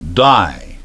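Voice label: "die", English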